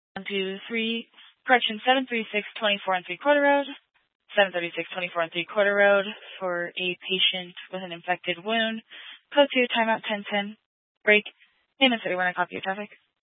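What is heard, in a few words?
Fire Dispatch, Scanner audio recording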